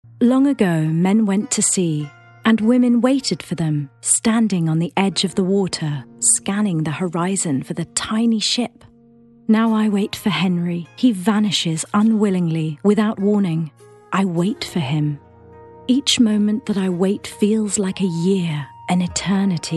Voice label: english british narration

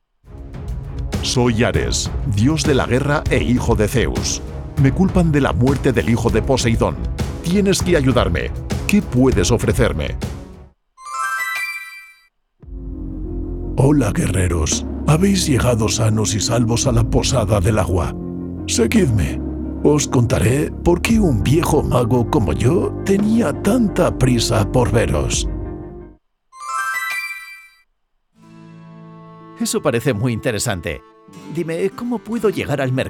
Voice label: spanish video games, spanish voice actors

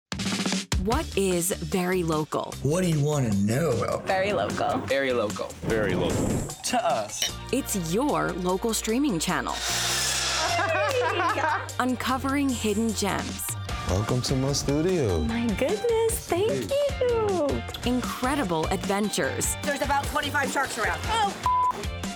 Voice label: english american promos

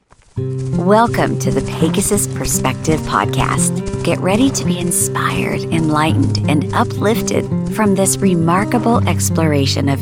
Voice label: english american podcasts

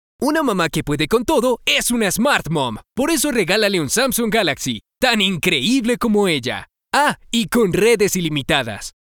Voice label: spanish latin american promos

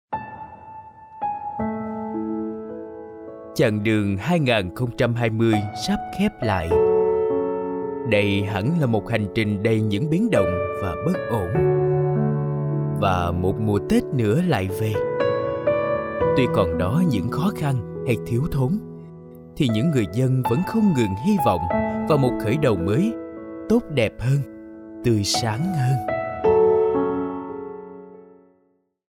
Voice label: vietnamese documentaries

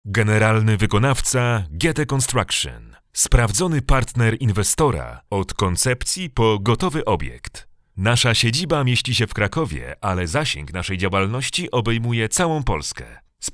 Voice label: polish real estate; Poland; A professional Polish voice actor

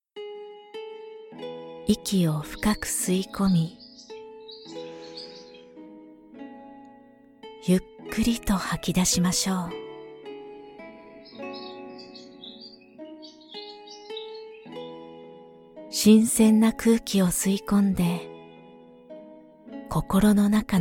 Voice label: Japanese, japanese voice actors

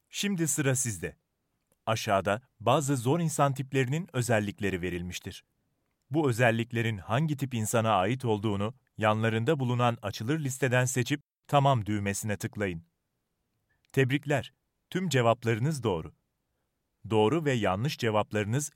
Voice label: turkish e-learning

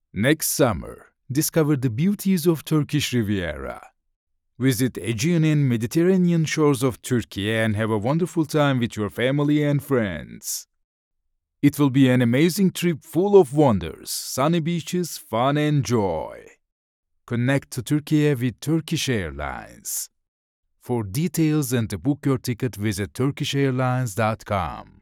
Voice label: english commercial

